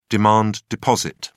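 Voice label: "demand deposit", British English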